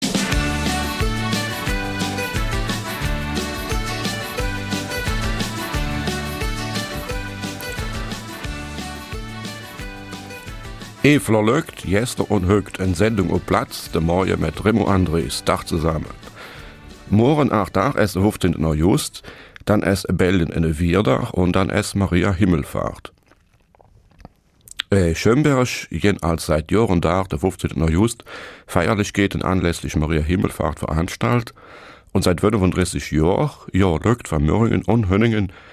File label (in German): Eifeler Mundart; 35 Jahre Pilgerwanderung Mürringen-Schönberg